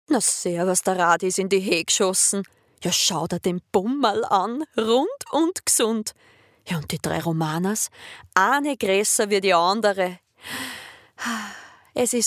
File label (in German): Werbung Biobauernhof Musik